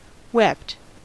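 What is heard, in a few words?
"wept" English, US; pronunciations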